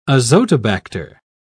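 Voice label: "azotobacter", British English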